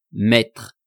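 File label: "mettre", French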